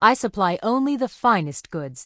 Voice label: Human Female Standard NPC Vendor